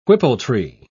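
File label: "whippletree", British English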